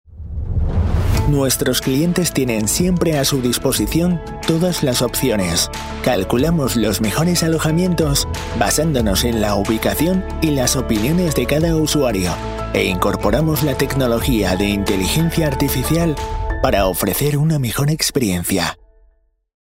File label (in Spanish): HOMBRE, CORPORATIVO